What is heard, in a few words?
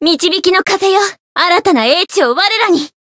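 Voice chant, Japanese; veina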